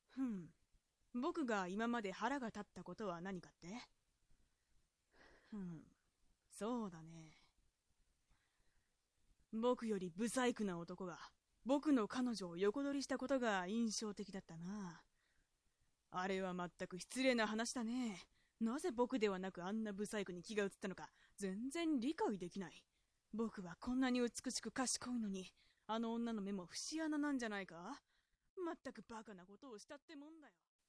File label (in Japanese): dansei